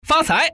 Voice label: common man